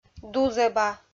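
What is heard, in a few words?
"дузӧба", Komi, female; kpv audio dict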